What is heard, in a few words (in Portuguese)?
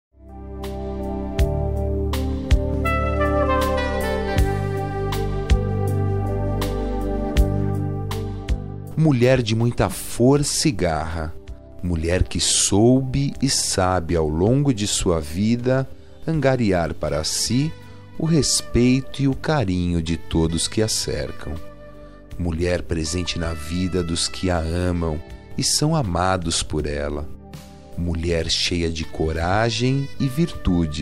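dia da avó masculina; dia dos avos para avo voz masculino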